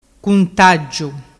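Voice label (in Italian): "cuntaggiu", dialect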